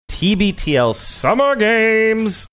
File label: saying summer games sing songy; tbtl